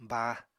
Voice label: "báa", Apatani